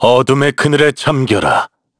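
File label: Vox Skill, Korean; Shakmeh; kings raid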